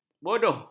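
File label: "bodoh", Malay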